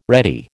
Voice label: "ready", American